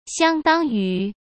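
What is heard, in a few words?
"相当于", Mandarin Chinese; hsk; chinese-flashcards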